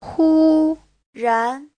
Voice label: "hu1 ran2", chinese

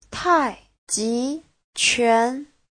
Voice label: "tai4ji2quan2", Chinese

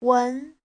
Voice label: "wen2", Chinese

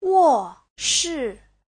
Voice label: "wo4 shi4", Chinese; Giáo trình Hán ngữ

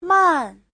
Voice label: "man4", chinese

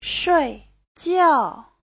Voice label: "shui4jiao4", Chinese

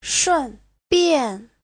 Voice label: "shun4bian4", Chinese

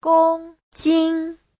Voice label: "gong1 jin1", chinese

